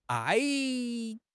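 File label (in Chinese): "挨", Chinese; HSK6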